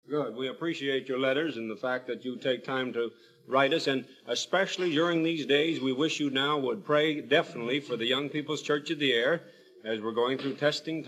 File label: Announcements; YPCA